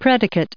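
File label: "predicate", us English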